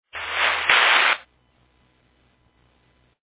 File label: Fire Dispatch